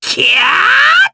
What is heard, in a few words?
Vox Casting, jp; voices; heroes; Lakrak; kingsraid